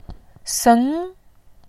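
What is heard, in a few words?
"seng", Mandarin Chinese, second tone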